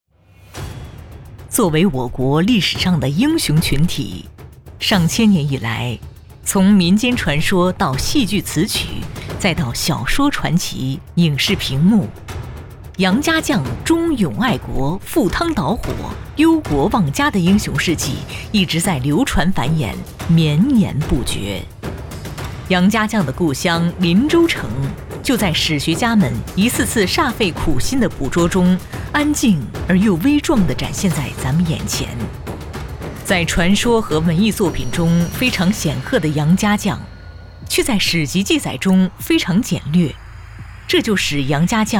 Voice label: 女 专题 历史感 历史纪录

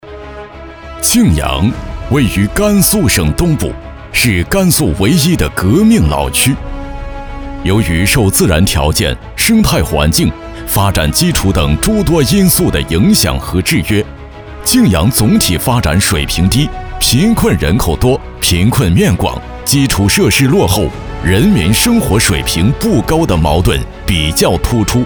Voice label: 男 专题 庆阳脱贫

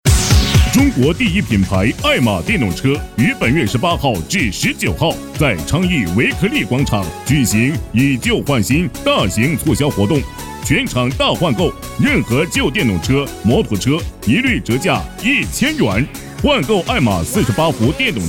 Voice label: 男 车品促销

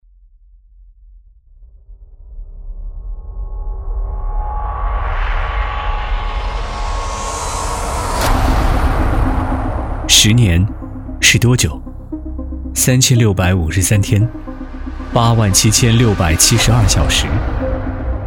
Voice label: 男 广告 质感; 奥雅北京

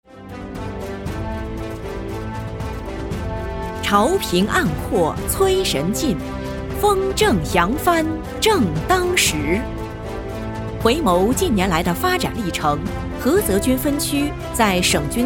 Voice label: 新闻; 党政部队巡礼

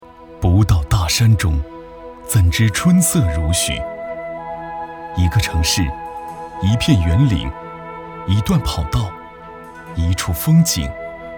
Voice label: 男 独白 公园; 大园林公园

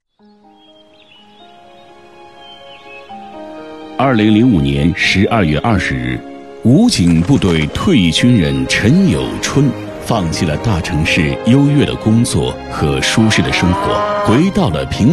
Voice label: 男-人物专题; 兵支书

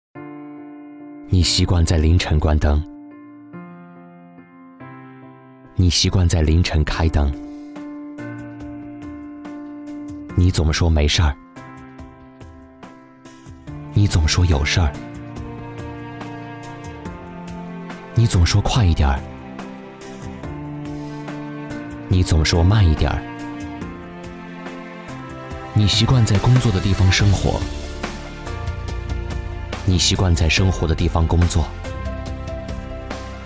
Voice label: 男-微电影-深沉独白

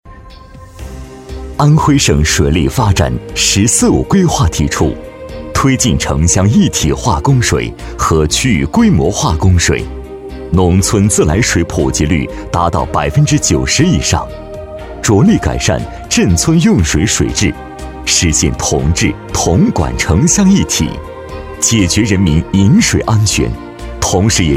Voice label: 男 企业; 泾县城乡供水

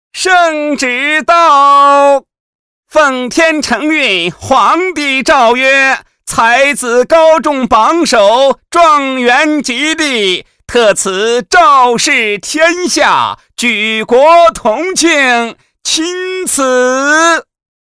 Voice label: 男,人物,太监

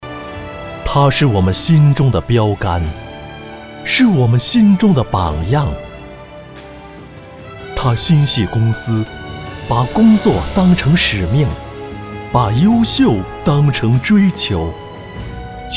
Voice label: 男, 颁奖; 年会优秀个人

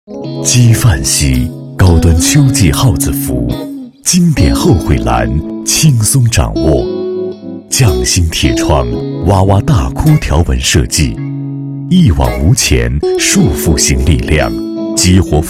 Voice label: 男 专题 产品介绍沉稳磁性

